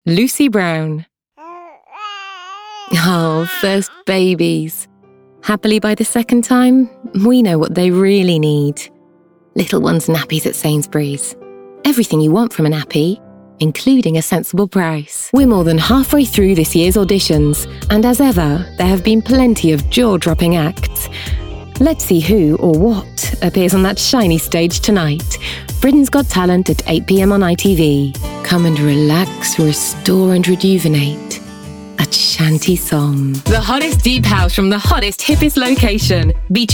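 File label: Commercial, Female Voice Over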